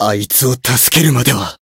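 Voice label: Voice chant, Japanese; jade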